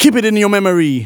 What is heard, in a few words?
phrase male rap; Vengeance Samples